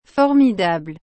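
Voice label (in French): "formidable", French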